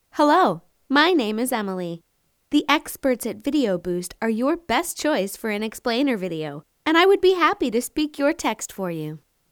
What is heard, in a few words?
American English, voiceover; internationale voiceover